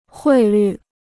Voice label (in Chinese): "汇率", Chinese